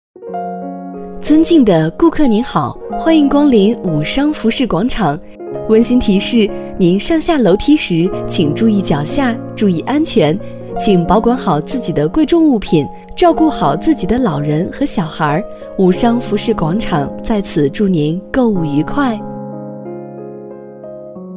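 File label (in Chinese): 广播服饰广场; 广播武商服饰广场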